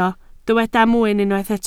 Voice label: "mwyn", Welsh